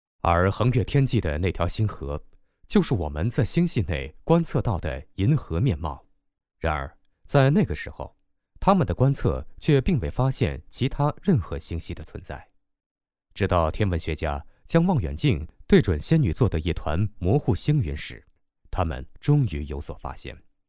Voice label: locutor chino chinese male voiceover; locutor chino mandarín